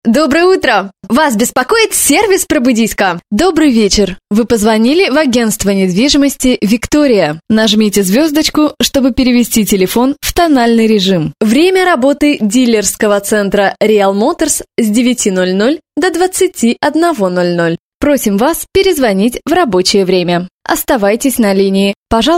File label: ivr